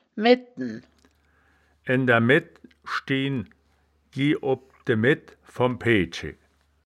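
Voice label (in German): "medten", wittlicher woerterbuch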